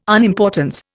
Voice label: "unimportance", Indian